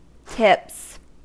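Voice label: "tips", US English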